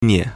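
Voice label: "nie4", Mandarin Chinese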